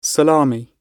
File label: "salami", English